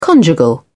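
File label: "conjugal", British English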